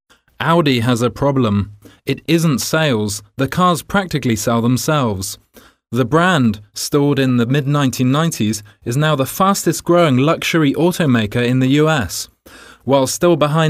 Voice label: British M, British English